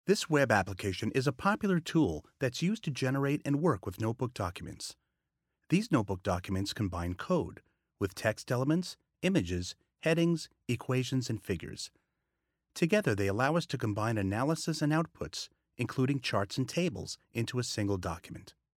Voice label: E-Learning Technical